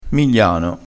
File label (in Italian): "migliano", crespinese